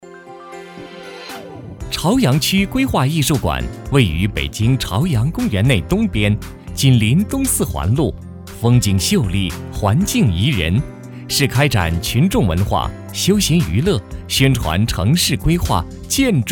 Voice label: 男100-多媒体朝阳规划艺术馆(轻松 活泼), 国语男声配音

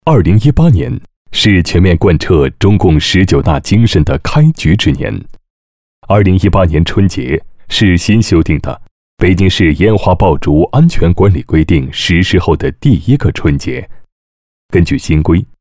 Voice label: 男 党政汇报 春节景观布置

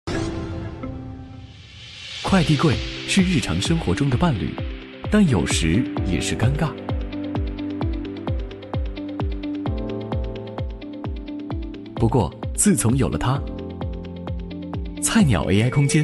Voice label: 男; 菜鸟AI空间

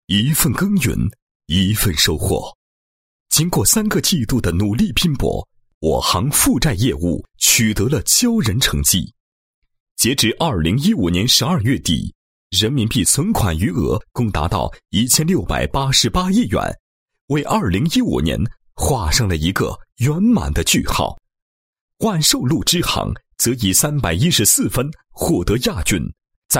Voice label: 男 颁奖, 配音; 某行本赛季全程冠军赛颁奖

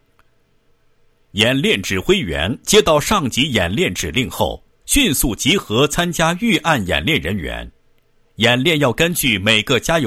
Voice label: 男 解说 跑冒油事故应急预案演练

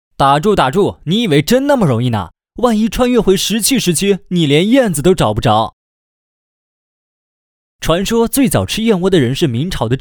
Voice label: 男 正典燕窝广告 时尚活力

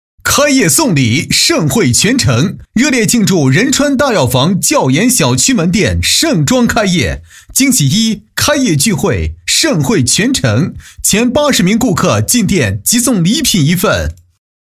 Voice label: 男, 广告 门店开业配音; 川大药房林东教研小区

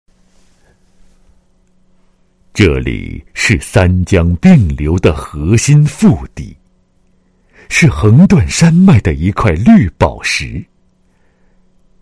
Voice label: 男 解说; 幸福维西 三江并流的核心腹地

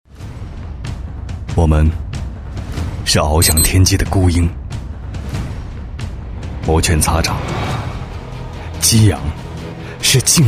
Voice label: 男, 品牌广告; 长江

